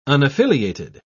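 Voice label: "unaffiliated", British English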